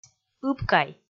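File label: "ыбкай", Komi, female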